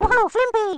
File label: weird lanky voice